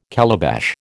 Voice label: "calabash", American